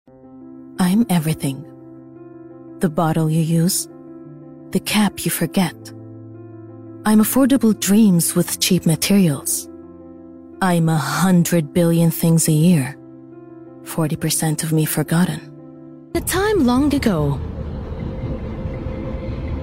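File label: English COMMERCIAL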